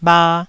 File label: "bha1", taiwanese